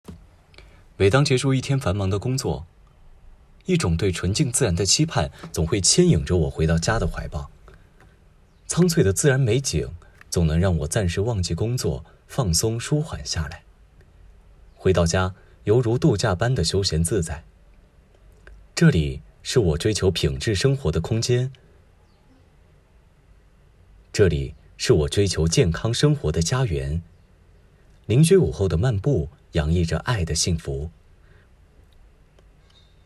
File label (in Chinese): 大溪谷干声, 低沉男嗓 轻松愉快 抒情缓和